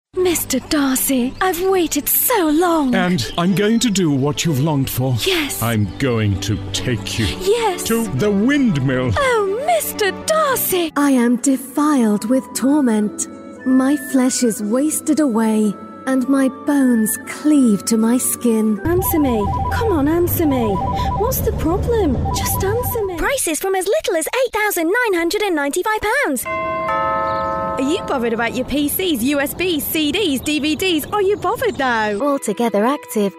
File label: Character Showreel